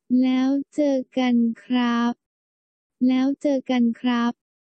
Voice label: 再見男生

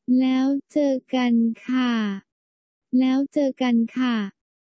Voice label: 再見女生

